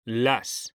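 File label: "las", Spanish, male; mekanta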